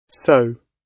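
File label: "sew", British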